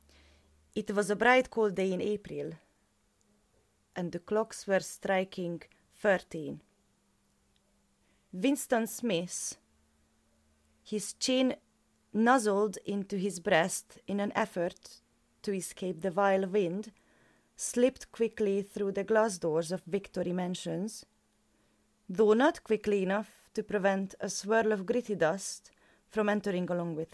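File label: Hungarian, English Heavy Accent; GoLocalise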